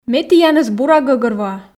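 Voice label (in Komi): ме тіянӧс бура гӧгӧрвоа, Komi, female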